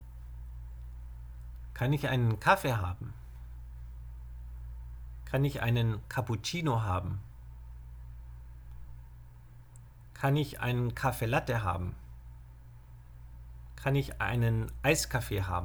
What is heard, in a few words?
German Lesson Drinking Out